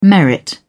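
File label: "merit", British English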